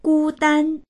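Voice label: "gu1dan1", Mandarin Chinese; 孤单; gūdān; 孤独な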